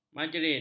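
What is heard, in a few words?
"marjerin", Malay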